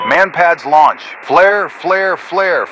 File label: Radio pilot Warning MANPADS